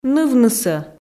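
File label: "нывнысӧ", Komi, female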